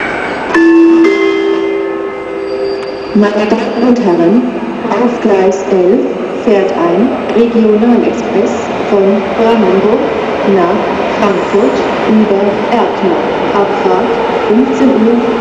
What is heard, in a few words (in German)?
Ansage, bahnhofsansagen; bahnhofsansagen set